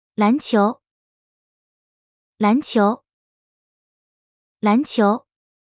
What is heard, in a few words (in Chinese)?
"籃球", Mandarin; Traditional Chinese